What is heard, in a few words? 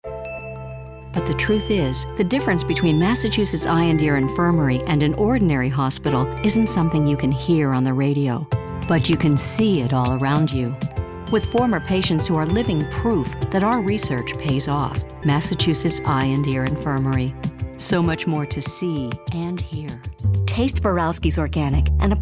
commercial